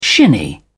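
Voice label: "shinny", British English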